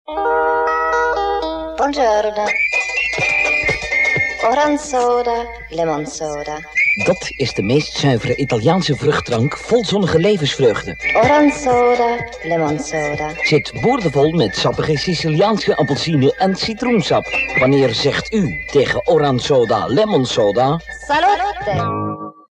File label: commercial